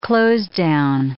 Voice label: "closedown", English

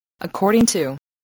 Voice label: "according to", English voice

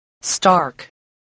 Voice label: "stark", voice

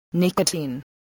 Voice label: "nicotine", voice

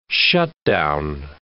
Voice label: "shutdown", English

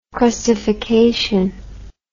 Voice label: "crustification", english

